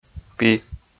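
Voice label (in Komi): "пи", Komi, male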